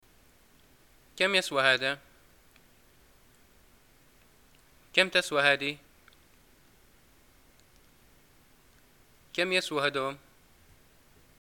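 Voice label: shopping how much, Libyan arabic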